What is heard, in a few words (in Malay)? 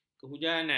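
"kehujanan", Malay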